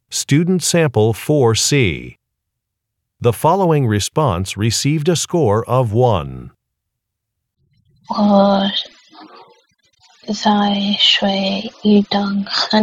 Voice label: chinese conversation

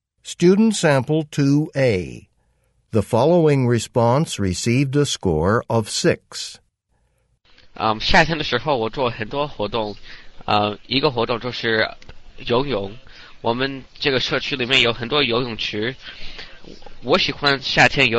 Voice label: Chinese convo; Conversation 2 Sample A (Score of 6)

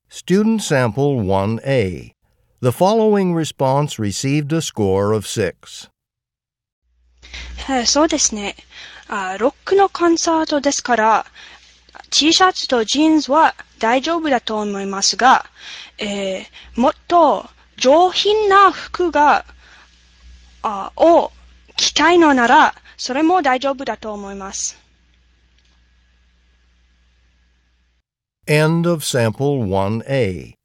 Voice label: japanese conversation; Interpersonal Speaking Samples; Conversation 1 Sample A (Score of 6)

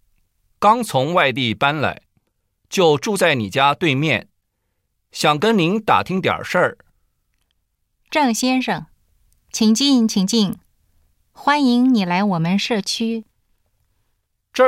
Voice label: Conversation

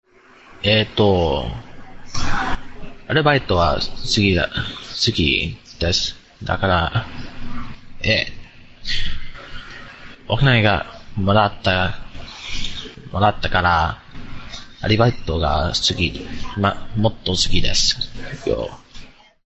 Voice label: Japanese Conversation, Japanese; Conversation2 Sample B Score3

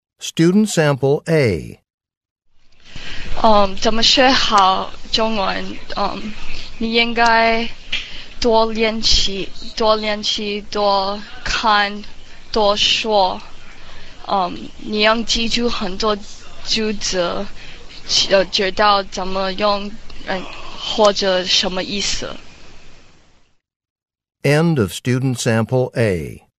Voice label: Chinese conversation; Conversation 6 Sample A (Score of 6)

